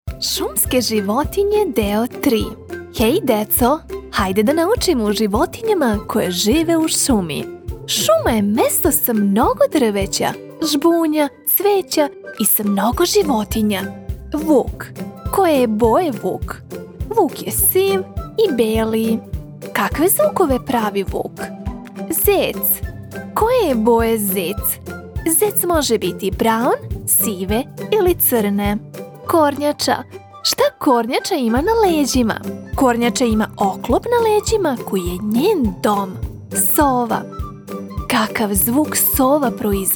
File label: serbian impersonations; Professional Serbian native voice-over actor from Belgrade